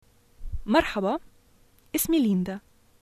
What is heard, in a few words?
hi my name is linda, arabic levantine